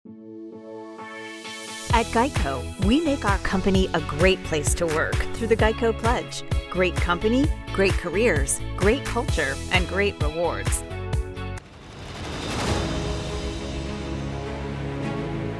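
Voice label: Corporate Narration, English voice over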